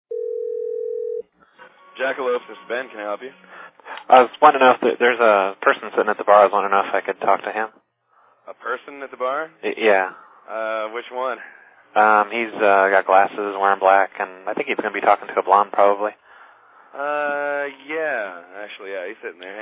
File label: jackalope call, Prank Calls; Phone Losers of America Media Pack 2014; Miscellaneous Pranks